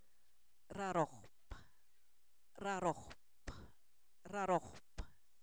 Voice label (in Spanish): Zapoteco, san miguel albarradas; segundo; numeros